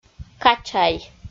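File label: "качай", Komi, female; kpv audio dict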